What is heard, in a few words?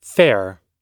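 "fair", English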